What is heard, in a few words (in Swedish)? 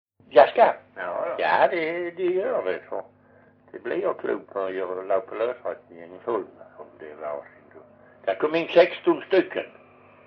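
Perstorp Skåne, Svenska sagor dialekter; Folkminnen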